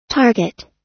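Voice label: "target", US English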